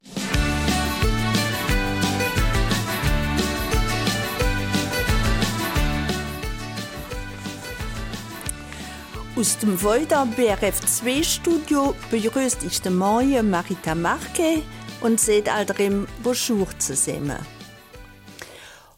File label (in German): Eifeler Mundart; Schulanfang; Wer erinnert sich noch an seinen ersten Schultag? Sicherlich beinahe jeder.; Primarschullehrerin